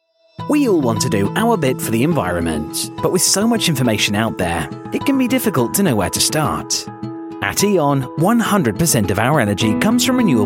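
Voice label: narration documentary, Youthful Guy Next Door British Voice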